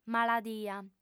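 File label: "maladia", female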